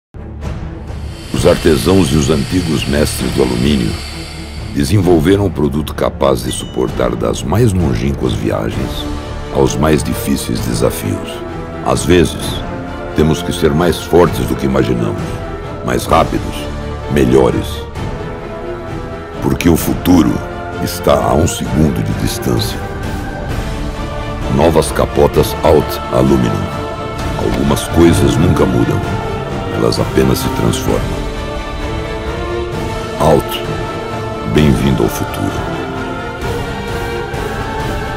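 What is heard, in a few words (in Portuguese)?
LOCUÇÃO PARA O COMERCIAL, LOCUTOR; ALLT Capota Automatica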